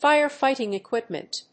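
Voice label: "fire-fighting equipment", English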